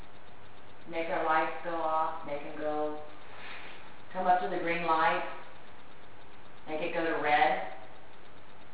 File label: parlor whispers in between